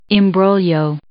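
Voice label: "imbroglio", English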